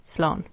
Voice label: "Slan", irish